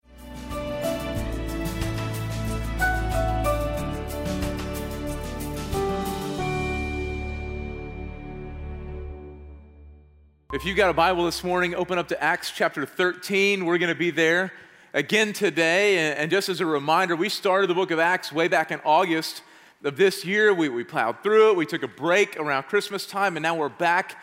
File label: Sermon English